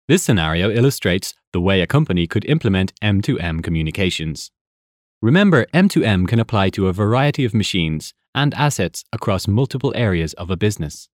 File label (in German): Sprecher Englisch UK; Nachvertonung Film